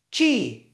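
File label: Voice Acting, Spanish, male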